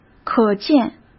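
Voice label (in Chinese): "可见", Mandarin Chinese; hsk; chinese flashcards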